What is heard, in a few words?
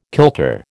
"kilter", American